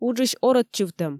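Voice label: "уджысь орӧдчывтӧм" Komi, female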